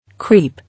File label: "Creep", English